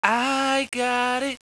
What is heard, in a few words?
happy - i got it!; Spades Deluxe